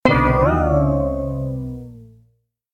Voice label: Death Voice; Warly